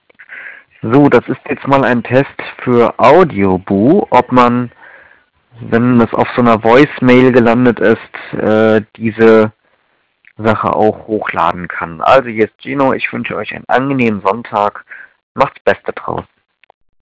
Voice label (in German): aufgenommen am Telefon ein kleiner Test